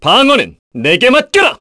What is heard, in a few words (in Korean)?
Vox Skill, kr; Clause; kingsraid